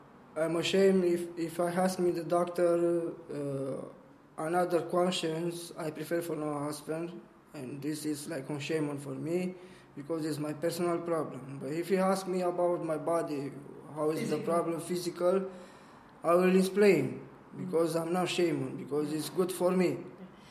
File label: Male